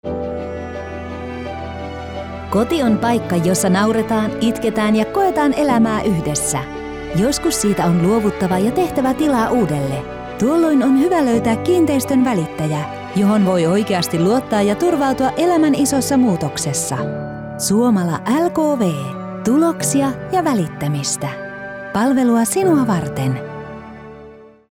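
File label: Finnish, female, commercial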